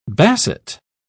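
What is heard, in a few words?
"basset", British English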